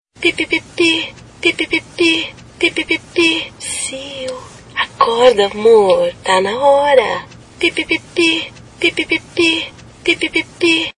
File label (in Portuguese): Acorda Amor, Vinheta